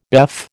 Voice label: "BEF", American